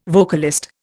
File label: "vocalist", Indian